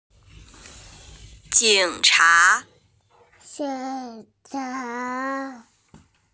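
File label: "jing3cha2", Mandarin Chinese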